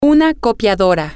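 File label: copier, Spanish, female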